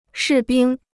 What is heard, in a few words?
"士兵", Chinese